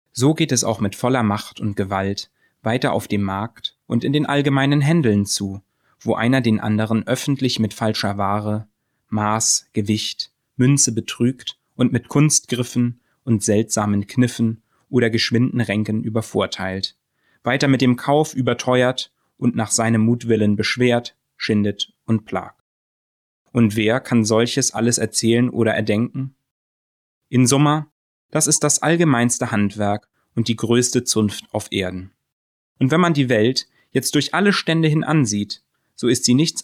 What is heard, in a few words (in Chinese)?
男声德语正常叙述